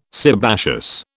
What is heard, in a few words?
"sebaceous", British